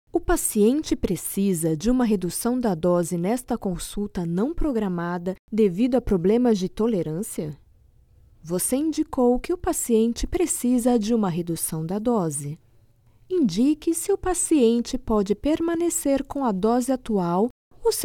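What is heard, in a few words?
Brazilian Portuguese IVR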